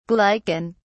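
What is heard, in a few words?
"glycan", English, British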